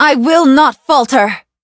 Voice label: battle en